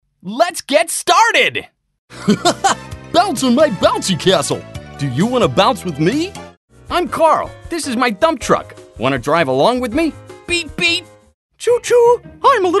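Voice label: American, toys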